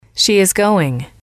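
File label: "she is going", English, female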